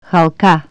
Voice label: ring, Bulgarian Consonants

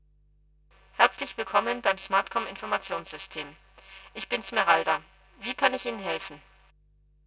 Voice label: fast; SmartKom; Prototypen Stimme

